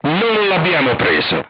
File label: armor not pierce, crew tanks italian; War Thunder